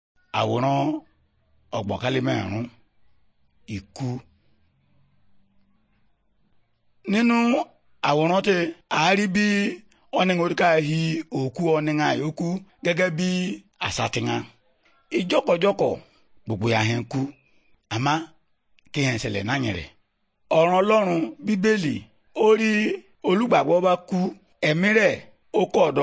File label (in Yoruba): good news yoruba, bible